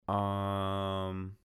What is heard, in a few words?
English "Uhm"